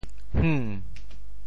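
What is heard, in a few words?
"hng2", Teochew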